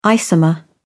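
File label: "isomer", British English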